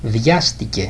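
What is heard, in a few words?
"biasthke", Greek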